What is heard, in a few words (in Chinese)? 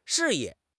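"示意", Chinese; HSK6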